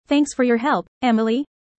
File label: user fast; how tall